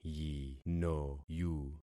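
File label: "yinoyu", concatenated syllables; Affixing experiment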